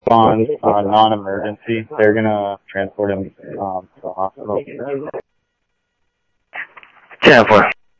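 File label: Sheriff East County, scanner audio; South Scottsdale; MCSO - EAST Sheriff-EastCnty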